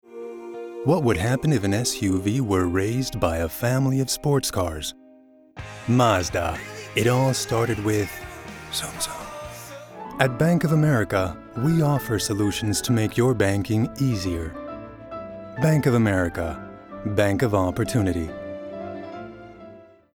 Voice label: English; Demo Reel; voice-overs